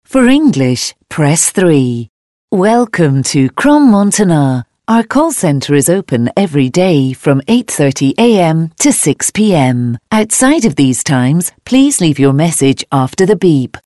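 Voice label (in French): voix féminine anglaise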